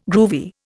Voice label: "groovy", Indian